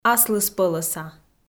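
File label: "аслыспӧлӧса", Komi-Zyrian, female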